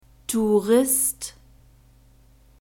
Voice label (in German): "tourist", German; masculine